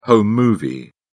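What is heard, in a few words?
"home movie", British English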